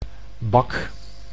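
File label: "bak", Dutch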